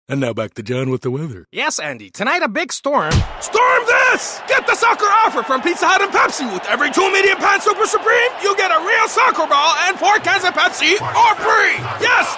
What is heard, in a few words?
Radio, English; Pizza Hut; World Cup